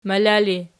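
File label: "mala" ergative, Archi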